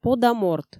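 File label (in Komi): пода морт, Komi, female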